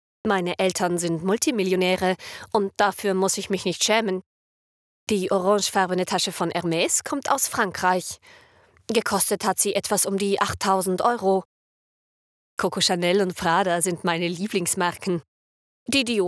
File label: Voice-Over